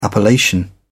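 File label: "appellation", British English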